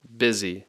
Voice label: "busy", English; Speech Pro